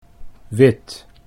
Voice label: wit, Dutch